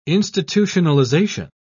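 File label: "institutionalisation", British English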